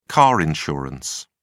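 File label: "car insurance", English, British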